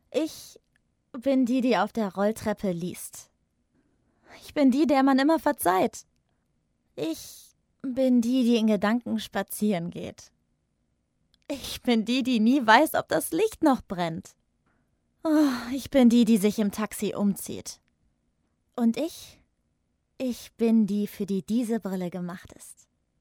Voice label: Werbung Brille

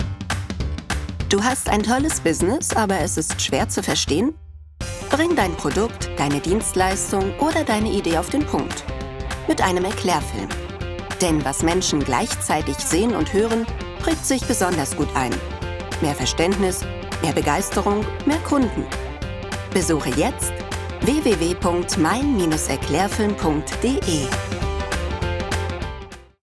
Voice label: werbung erklaerfilm musik

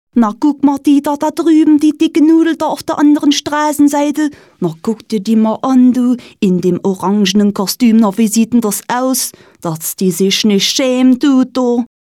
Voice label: saechsisch

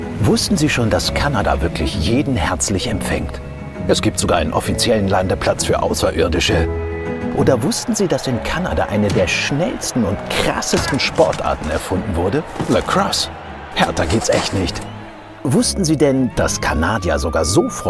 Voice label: Imagefilm; canada life

